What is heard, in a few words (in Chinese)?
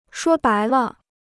"说白了", Chinese